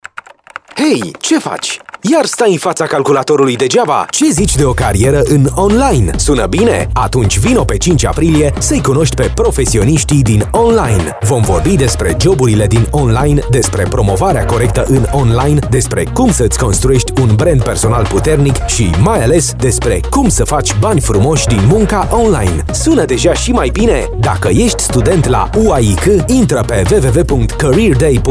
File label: spot impact fm; careed day